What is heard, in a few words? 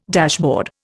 "dashboard", Indian